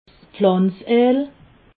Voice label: "pflanzeel", alsace

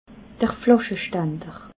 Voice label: "flaschestander", alsace

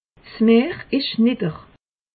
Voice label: "meer", alsace; meteo

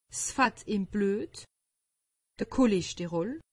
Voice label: "cholesterol", alsace; medecine

